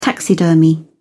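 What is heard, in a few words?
"taxidermy", British English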